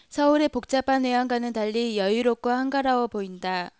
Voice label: korean; GlobalPhone